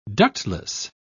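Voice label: "ductless", British English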